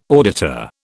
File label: "auditor", British